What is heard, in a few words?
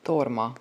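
"torma", hungarian; white horse radish; easter traditions in hungary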